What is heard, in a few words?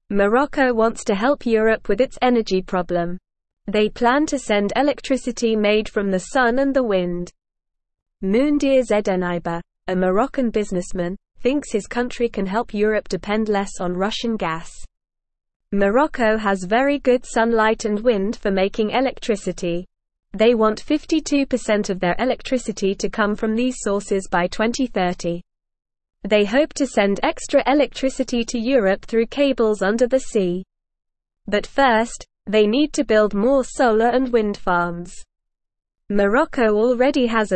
English Newsroom Beginner NORMAL Reading; Morocco Sends Sun and Wind Power to Europe